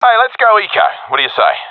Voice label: request eco, professional epic; vo; Counter Strike Model Pack; CS:GO